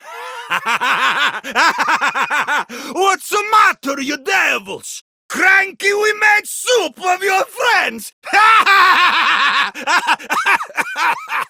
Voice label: Villagers Ostron Male Older en

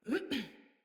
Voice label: Roll Female, Battle Barks; Envoy

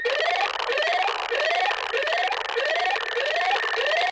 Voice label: Enemy Alarm, Dialog Barks Battle; Warframe; Lasrian

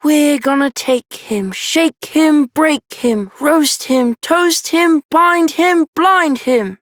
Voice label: Child Rhymes Anger Child M, Childrens Rhymes; Duviri

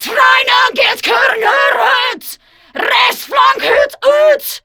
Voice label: Attack, Female

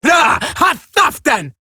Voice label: Cover Me Eximus Grineer Female

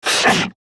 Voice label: Struggle, Battle Barks; Warframe; Grineer Manic

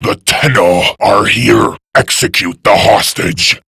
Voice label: Rescue Approach Alert Grineer Male; Warframe; Generic Missions

